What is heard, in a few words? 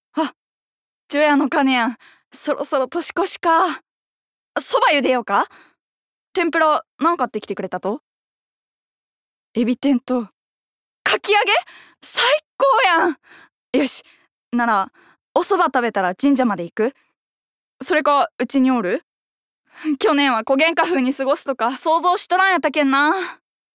female voice